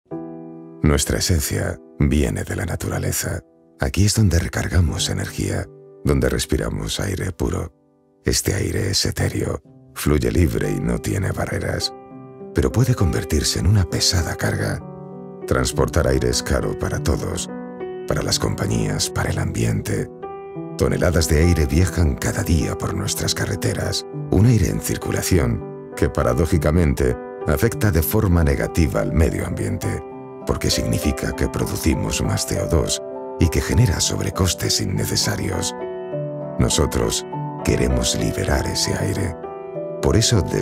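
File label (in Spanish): e-learning, Spain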